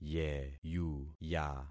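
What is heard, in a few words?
"yeyuya", concatenated syllables; Affixing experiment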